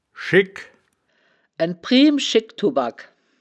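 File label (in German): "schigk", wittlicher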